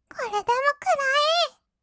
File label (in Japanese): "これでもくらえー", kawaii voice; ASMR; 色々なワンショット